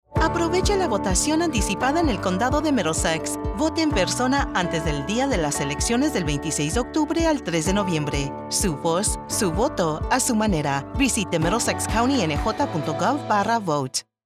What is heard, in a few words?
VOTE Spanish, political voiceover; Middlesex County